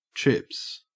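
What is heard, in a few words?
"trips", Australian English; pronunciations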